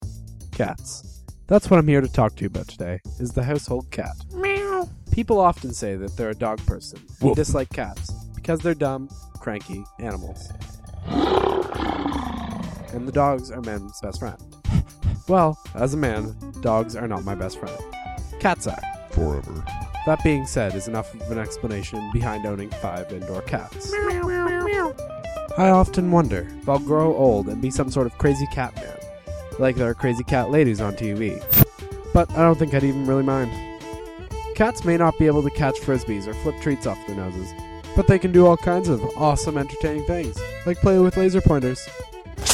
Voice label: podcast